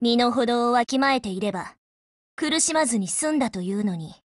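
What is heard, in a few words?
WIN Japanese, Voice; GF Alarm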